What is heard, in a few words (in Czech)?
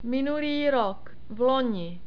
"loni", czech